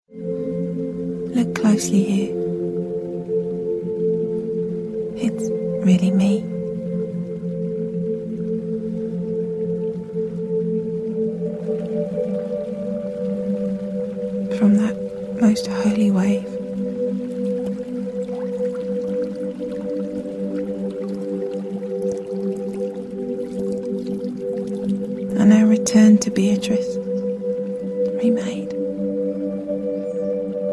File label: narration